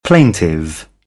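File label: "plaintive", British English; AmericanBritish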